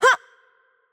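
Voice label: Attack Light, Polish; VO; pl PL; bloom minigame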